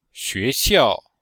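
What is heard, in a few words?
"xue2xiao4", Chinese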